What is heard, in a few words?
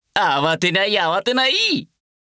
Vo Male Awatenai Awatenai; gekiban